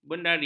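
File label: "bendari", Malay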